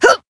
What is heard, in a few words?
Voice damaged; ruina